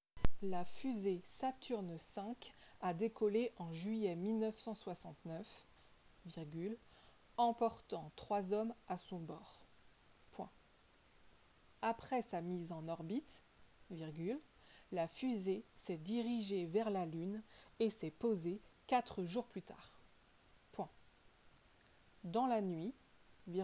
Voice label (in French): dictee